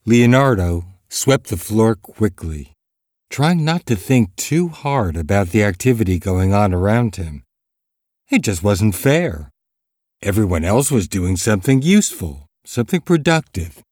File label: audio books, American; USA